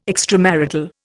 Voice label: "extramarital", Indian